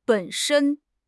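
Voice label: "本身", Mandarin Chinese; HSK; HSK6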